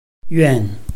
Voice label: "yuan4", Chinese